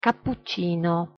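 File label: "cappuccino", Italian pronunciation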